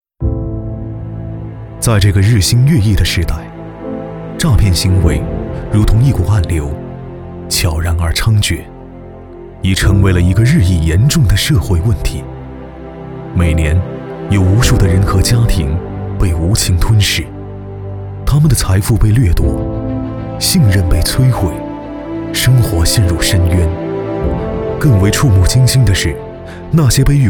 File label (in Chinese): 宣传低沉